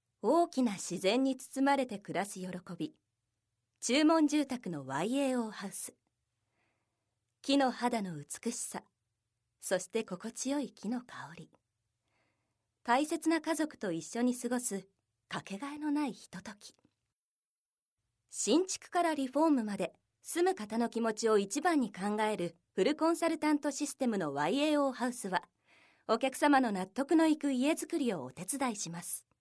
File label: narration